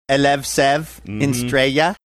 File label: Australian; elev sevin straya